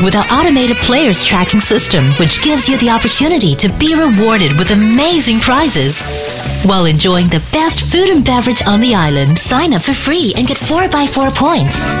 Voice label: Asian English Commercial Casino; Curacao Marriot